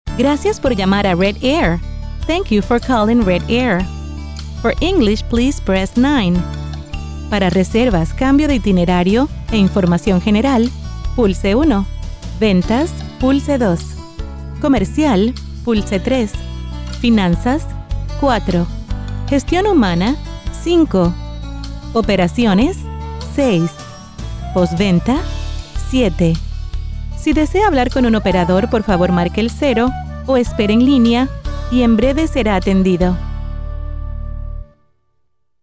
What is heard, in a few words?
spanish latin american ivr